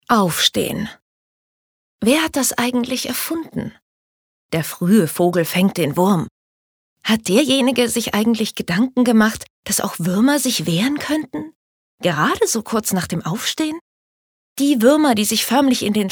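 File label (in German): acting, Dynamisch; Der Fruehe Vogel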